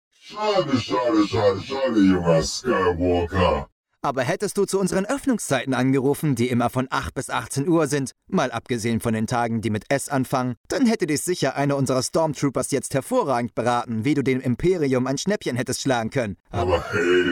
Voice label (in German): Anrufbeantworter; Elektroimperium